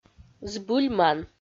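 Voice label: "збыльман", Komi-Zyrian, female; audio dict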